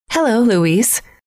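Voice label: "hello", female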